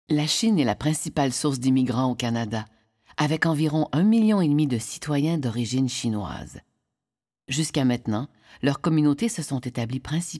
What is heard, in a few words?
mono VO; Les Chinois Dépannent